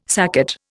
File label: "saccate", Indian